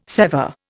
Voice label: "sever", Indian